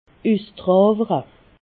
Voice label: "usdrewere", alsace

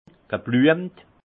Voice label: "gebluemt", alsace; vin; fleuri

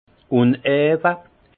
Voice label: "unawe", alsace; vin

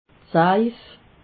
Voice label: "saif", alsace

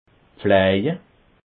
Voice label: "pflaje", alsace

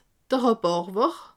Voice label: "rhabarber", alsace

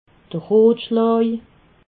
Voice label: "rotschlaj", alsace

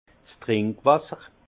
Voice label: "trinkwasser", alsace; vin; eau potable

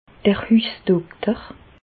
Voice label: "hussdokter", Bas Rhin; medecine; médecin généraliste

